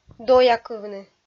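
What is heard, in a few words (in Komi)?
"доякывны", Komi, female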